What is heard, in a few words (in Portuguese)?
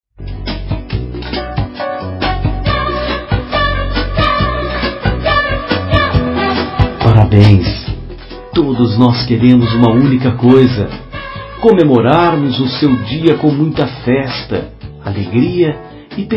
tele mensagem aniversario voz masculina; COMEMORATIVAS